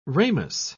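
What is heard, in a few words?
"ramous", British English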